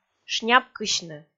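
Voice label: "шняпкысьны", Komi, female; kpv audio dict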